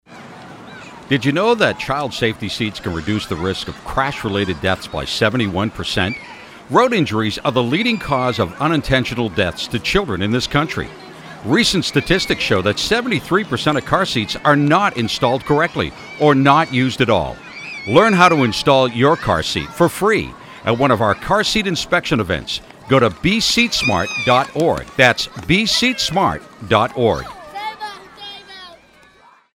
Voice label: Radio Spot, Car Seat; CPS